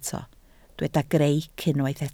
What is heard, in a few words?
"greic", Welsh